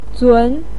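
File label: "zun" second tone, Mandarin Chinese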